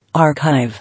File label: "Archive", English